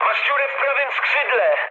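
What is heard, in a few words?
Polish right wing mood medium; speech; War Thunder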